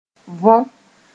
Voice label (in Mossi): "võ", moore